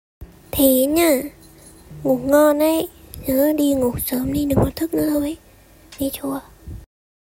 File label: voice cute